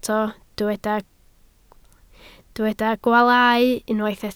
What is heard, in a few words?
"gwaalau", Welsh